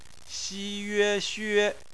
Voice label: "xue", Chinese